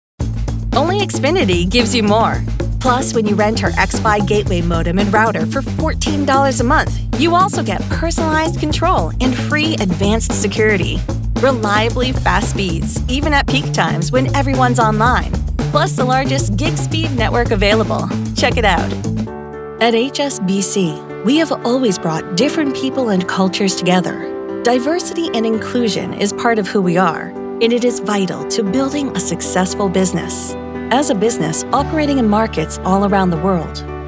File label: CORPORATE